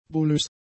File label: "bullös", German; adjectives